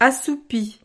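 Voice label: "assoupi", French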